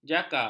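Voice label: "jaka", Malay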